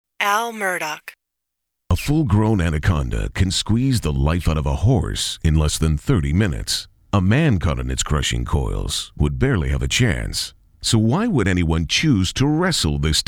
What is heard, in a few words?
Narration